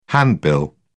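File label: "handbill", British English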